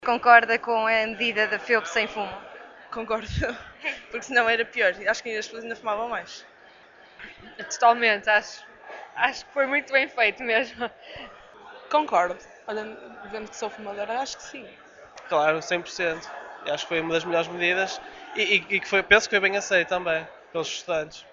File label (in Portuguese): vox pop; feup sem fumo